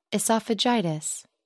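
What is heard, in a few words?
"oesophagitis", English, British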